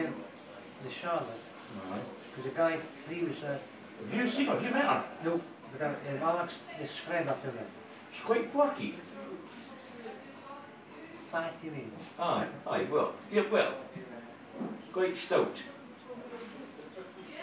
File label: old man pub chat